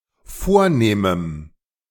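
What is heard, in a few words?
"vornehmem", German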